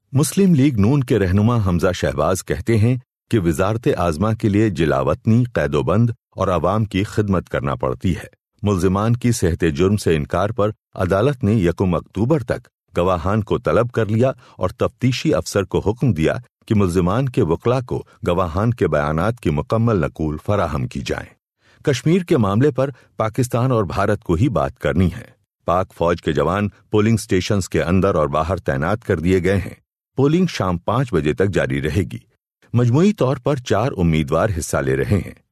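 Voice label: Urdu, male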